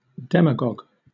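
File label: "demagogue", British English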